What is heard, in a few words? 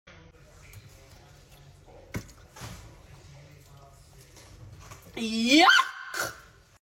girl saying yukk; audio meme